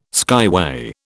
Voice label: "skyway", British

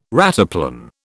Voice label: "rataplan", British

